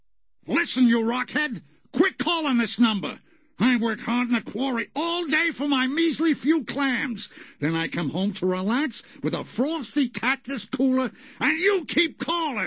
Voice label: answering machine message; cartoon sound fx; fred flintstone; hannah barbera cartoon sound fx

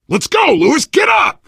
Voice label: revive friend loud, player survivor voice biker; source engine; left 4 dead 2

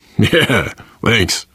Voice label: thanks, player survivor voice biker; source engine; left 4 dead 2

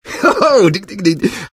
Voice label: taunt cong fun; engy; tf; taunts

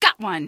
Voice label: got one, female; Source Engine; video game; npc

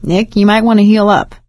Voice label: suggest health, survivor voice; nick; Left 4 Dead 2; Source Engine; video games; producer

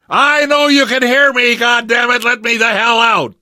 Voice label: call for rescue, survivor voice

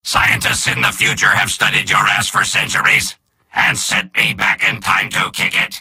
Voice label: robot; soldier; video games; source engine; tf; mvm

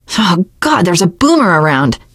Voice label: heard boomer, teengirl; voice; source engine; left 4 dead 2; survivor

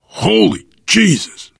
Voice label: reaction negative, biker voice

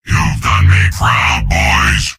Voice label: soldier cheers; source engine; tf; mvm

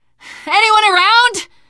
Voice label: lost call, teengirl; survivor voice

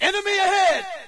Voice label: US enemy ahead; player; Source Engine; dod

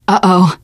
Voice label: reaction negative, teen girl; survivor voice

